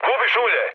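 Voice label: Serbian damaged oil mood med, speech; War Thunder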